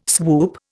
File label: "swoop", Indian